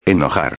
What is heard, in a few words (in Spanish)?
"enojar", espanhol; palavra do dia